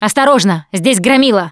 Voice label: heard charger, Left 4 Dead 2 russian, Survivor Voice Producer